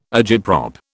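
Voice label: "agitprop", British English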